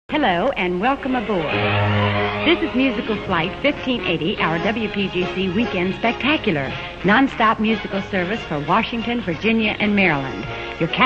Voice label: sweeper stager unknown female voice; flight 1580